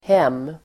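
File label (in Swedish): "hem", Swedish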